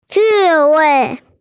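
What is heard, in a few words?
"hedgehog", Mandarin; ci4wei5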